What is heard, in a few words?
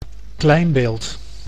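"kleinbeeld", Dutch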